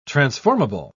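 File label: "transformable", British English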